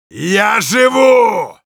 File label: Heavy positive vocalization ru